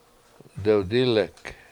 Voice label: "dewdIlek" Indian Paint Brush, Washo